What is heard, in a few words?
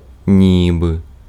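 Ukrainian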